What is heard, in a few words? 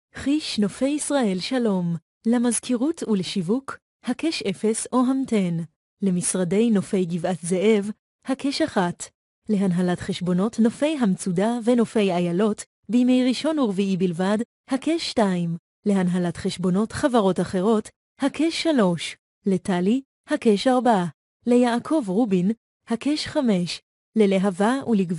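Hebrew, female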